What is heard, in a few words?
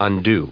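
"undo", English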